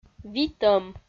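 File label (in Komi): "витӧм", Komi, female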